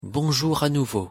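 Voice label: pronunciation "bonjour a nouveau", French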